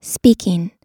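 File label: "speaking", American English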